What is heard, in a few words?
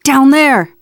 context modifier calm, teengirl; player; survivor; voice